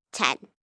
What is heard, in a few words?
Numbers "Ten", 1 child, girl counting; Talking Sound Effects